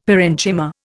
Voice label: "parenchyma", Indian; Dictionary

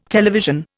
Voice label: "television", Indian

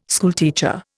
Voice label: "schoolteacher", Indian

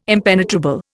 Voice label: "impenetrable", Indian

